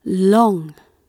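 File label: "long", British English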